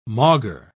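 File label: "maugre", British English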